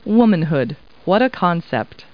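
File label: woman, Talking Clip Words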